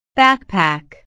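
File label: "backpack", English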